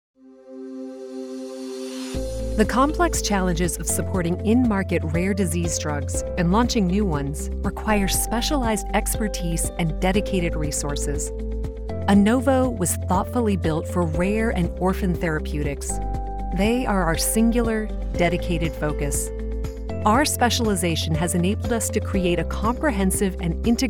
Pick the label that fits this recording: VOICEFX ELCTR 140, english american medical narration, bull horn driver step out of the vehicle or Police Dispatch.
english american medical narration